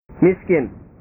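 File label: "miskin", Indonesian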